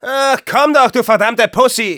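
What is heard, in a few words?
taunts, German; Scout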